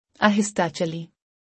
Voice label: "Walk slowly", Urdu; Basic Greetings and Courtesy